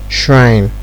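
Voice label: "shrine", Nigerian Pidgin, male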